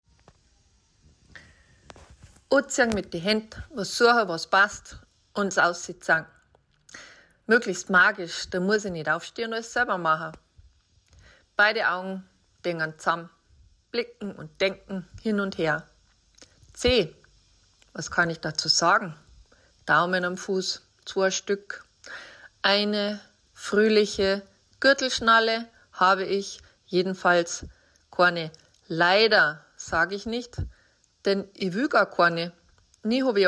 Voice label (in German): bayrisch